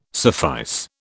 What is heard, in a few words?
"suffice", British